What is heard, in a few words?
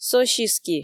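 "сосиски", Komi, female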